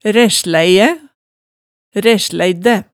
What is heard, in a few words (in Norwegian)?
"ræsslæiæ", Hemsedal